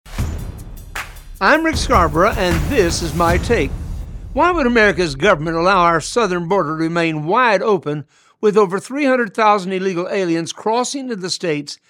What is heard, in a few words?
Radio Spot; Southern Border